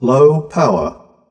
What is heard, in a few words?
fem lopowr; lmctf